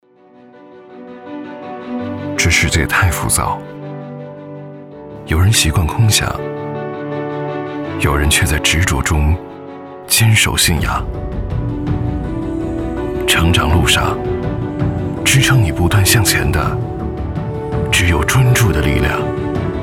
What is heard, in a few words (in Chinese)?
广告 品牌形象 磁性, 男国; 专注的力量